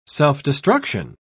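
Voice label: "self-destruction", British English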